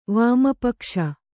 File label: "ವಾಮಪಕ್ಷ", Kannada